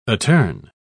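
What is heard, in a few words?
"attorn", English, British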